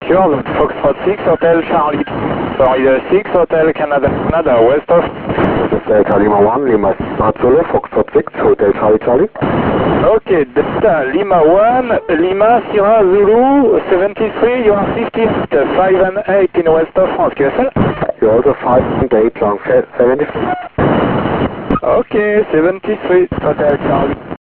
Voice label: qso; uo-14